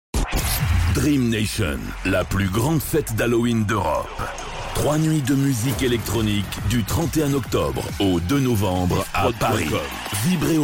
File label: Spot Halloween; Dream Nation